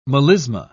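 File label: "melisma", British English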